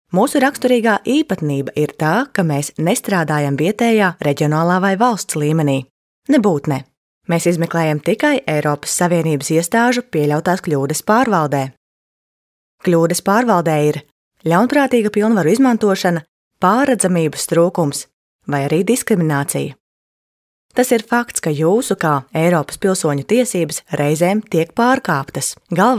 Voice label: female latvian voice over narrative